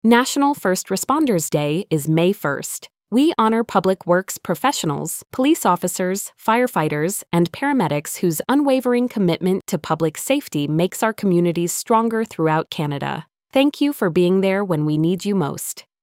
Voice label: Canadian PSA Female; 15-second PSA, female voice; Canada’s National First Responders Day is May 1